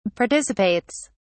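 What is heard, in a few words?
"participates", British English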